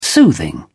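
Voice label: "soothing", British English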